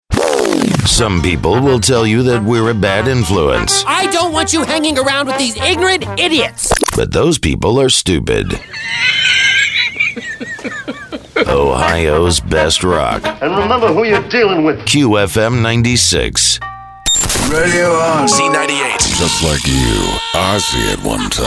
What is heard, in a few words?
Male, promos